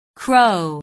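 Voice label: "crow", English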